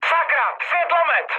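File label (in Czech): Czech searchlight, mood high; War Thunder; speech cze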